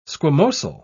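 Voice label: "squamosal", English, British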